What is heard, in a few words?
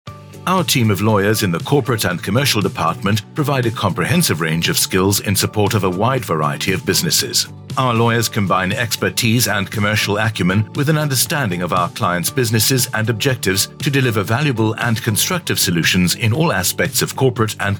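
Voice Overs, South Africa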